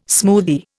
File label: "smoothie", Indian